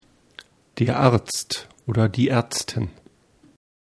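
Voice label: "arzt", German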